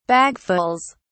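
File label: "bagfuls", British English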